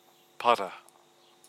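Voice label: "para", Māori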